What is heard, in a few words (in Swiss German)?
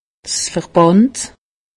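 "verband", Alsatian; medecine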